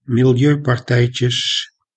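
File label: "milieupartijtjes", Dutch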